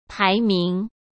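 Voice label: "排名", Mandarin Chinese; chinese-flashcards; hsk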